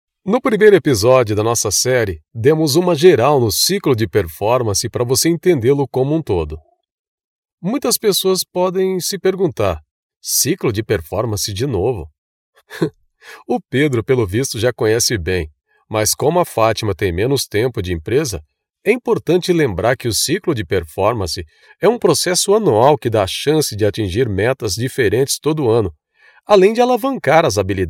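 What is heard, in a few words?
Brazilian Portuguese, E-learning; GoLocalise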